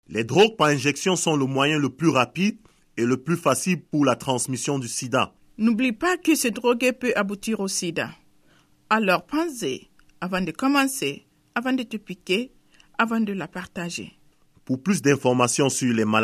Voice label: spot french